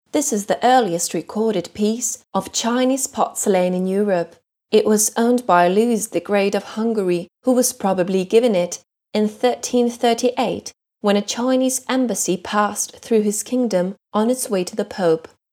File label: VO ENG